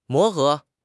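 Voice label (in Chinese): "磨合", Chinese; HSK6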